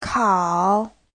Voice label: "kao3", chinese; 烤; quay, nướng

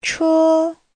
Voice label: "che1", Chinese

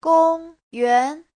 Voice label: "gong1 yuan2", chinese

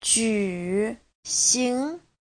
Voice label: "ju3 xing2", chinese; 举行; Giáo trình chuẩn HSK3

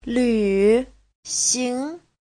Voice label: "lv3xing2", chinese

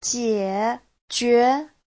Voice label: "jie3jue2", chinese; 解决; (động từ) giải quyết; Giáo trình chuẩn HSK3

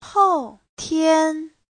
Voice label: "hou4 tian1", chinese; 后天

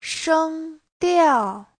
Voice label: "sheng1diao4", Chinese; 声调; thanh điệu

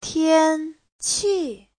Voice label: "tian1qi4", Chinese; Giáo trình Hán ngữ

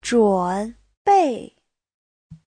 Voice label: "zhun3bei4", chinese

